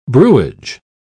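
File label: "brewage", British English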